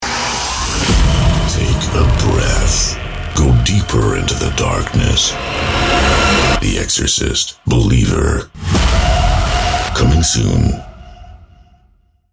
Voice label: PROMO trailer; Excorcist Believer

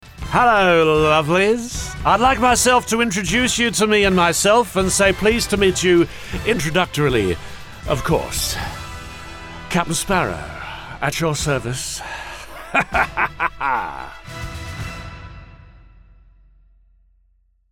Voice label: Pirate; Jack Sparrow

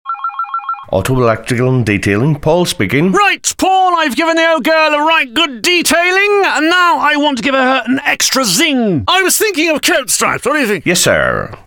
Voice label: parody, Auto Electrical EXTRA ZING; John Cleese

